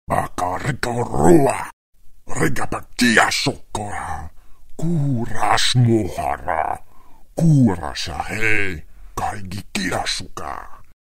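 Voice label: orc chatters in own language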